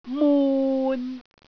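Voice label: "mun6", Cantonese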